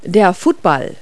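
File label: "football", English word; Multi-Lingual Talking Picture Dictionary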